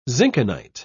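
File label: "zinkenite", British English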